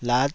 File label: "lat5", taiwanese syllables